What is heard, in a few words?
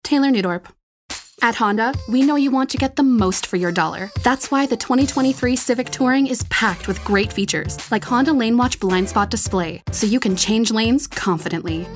Commercial